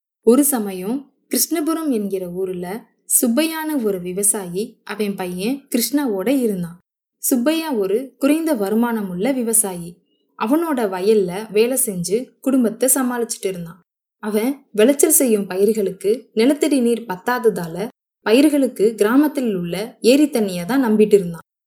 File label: Tamil Female